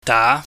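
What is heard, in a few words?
"da", Cantonese, mid rising tone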